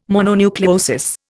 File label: "mononucleosis", Indian